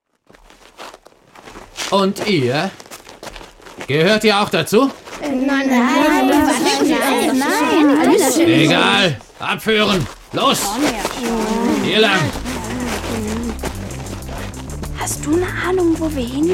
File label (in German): Hoerszene; Die Gute Nachricht